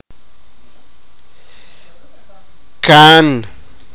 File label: sipakapense; mothers elder sister